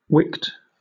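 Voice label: "wicked", British English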